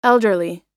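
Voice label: "elderly", English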